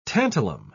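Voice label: "tantalum", British English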